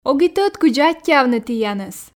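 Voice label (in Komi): ог и тӧд кыдзи аттьӧавны тіянӧс, Komi, female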